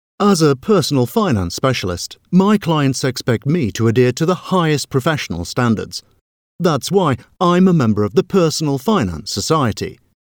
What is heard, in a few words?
voiceover commercial business finance